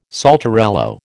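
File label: "saltarello", American English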